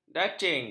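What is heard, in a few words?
"dacing", Malay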